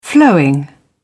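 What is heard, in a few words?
"flowing", British English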